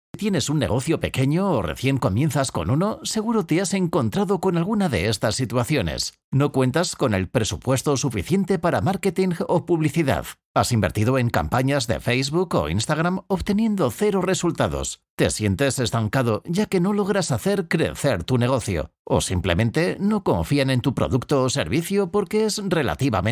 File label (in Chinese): 西班牙语男 自然, 外语; 小语种